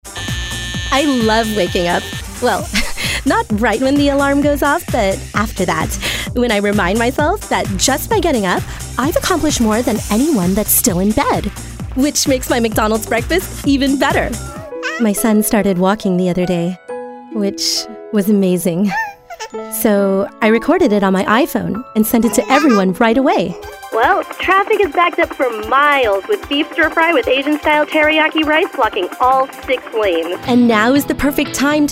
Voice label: Commercial